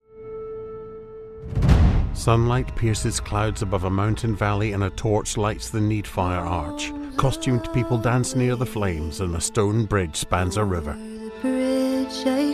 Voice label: english scottish documentaries

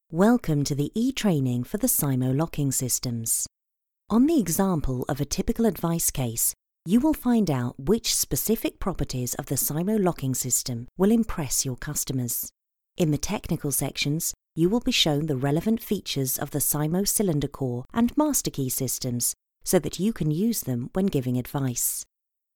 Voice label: english british e learning

